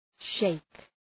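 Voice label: "sheik", English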